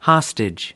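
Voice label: "hostage", American English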